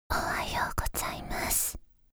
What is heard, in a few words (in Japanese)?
「おはようございます」（ささやき）; 挨拶パーツ（エクササイズ前）; 特製チクニーエクササイズボイスパーツ